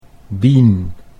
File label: "Bean", Dutch